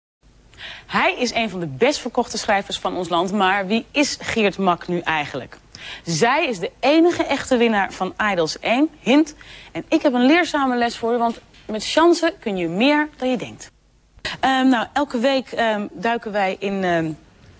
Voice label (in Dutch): Poldernederlands; geboren 1971 in Paramaribo; studie ballet-academie; Sylvana Simons; presentatrice bij RTL4